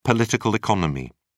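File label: "political economy", British English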